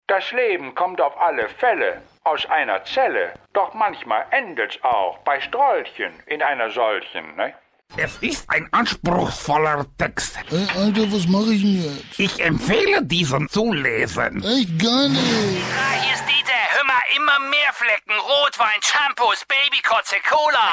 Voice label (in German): Imitationen Collage